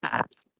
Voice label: voice message